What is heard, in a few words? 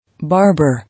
"Barber", English